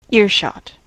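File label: "earshot", US English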